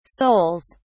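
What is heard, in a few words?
"souls", British English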